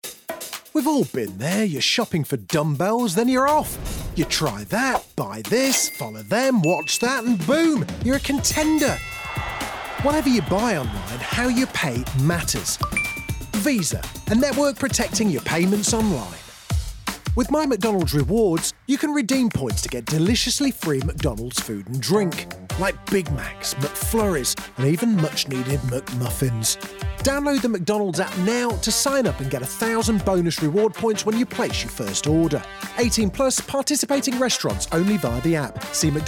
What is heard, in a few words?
Commercials Montage; STYLE: Clear